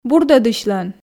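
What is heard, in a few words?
"бурдӧдысьлӧн", Komi, female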